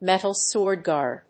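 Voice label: "metal sword guard", English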